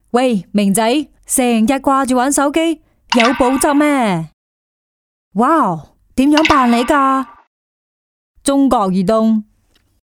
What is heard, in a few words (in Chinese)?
英女 粤语; 中国移动